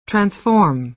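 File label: "transform", American English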